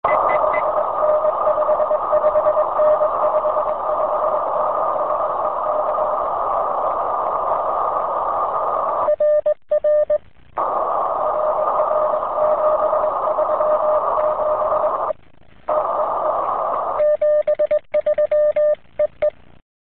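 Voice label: amateur radio contact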